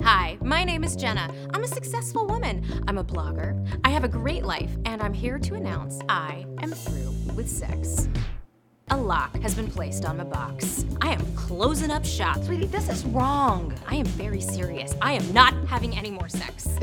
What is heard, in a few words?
Radio Spot; BornAgainVirgin